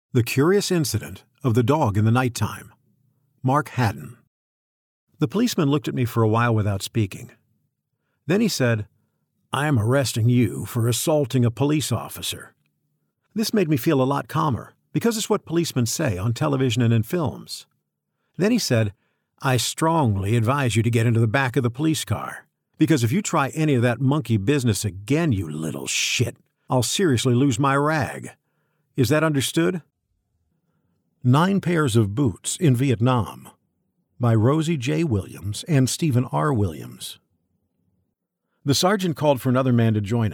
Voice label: Audiobook

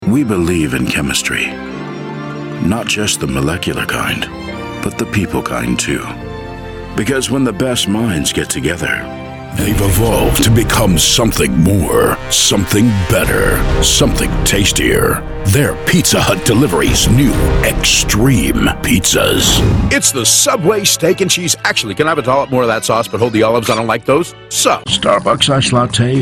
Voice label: VO reel

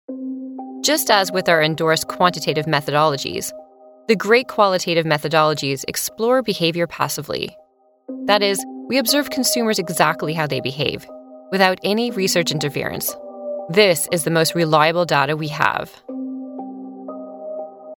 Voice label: educational

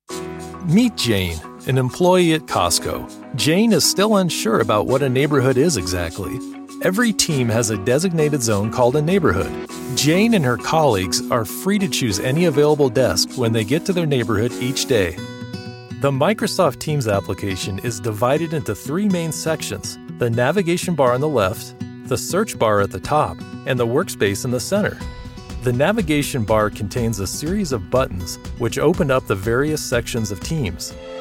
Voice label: narration

